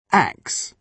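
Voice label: "axe", British English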